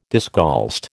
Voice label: "discalced", American